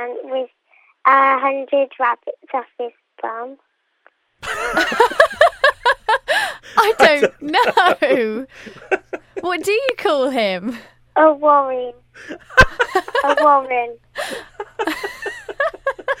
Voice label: weather kid funny joke